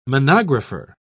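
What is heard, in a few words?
"monographer", English, British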